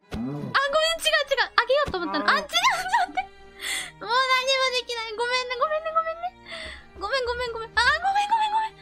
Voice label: 焦りボイス; マイクラ

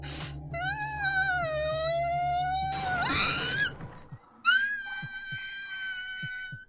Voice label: ビビリボイス; Flashpoint Ultimate 13; TheHouse

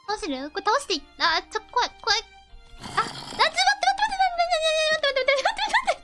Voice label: 焦りボイス; マイクラ

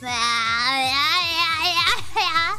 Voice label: 幼児退行, 情けない声

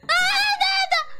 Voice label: ビビリボイス; Flashpoint Ultimate 13; TheHouse

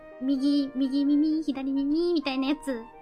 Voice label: 右耳～左耳～; 初配信

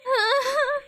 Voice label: ヘタレボイス; Flashpoint Ultimate 13; TheHouse

